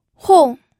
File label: "hong4", pinyin audio